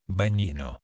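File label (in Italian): "bagnino", italiano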